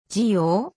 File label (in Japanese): "ぢょ", 日本語; 基礎日語五十音聽力